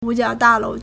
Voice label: "wu3jiao3da4lou2", Chinese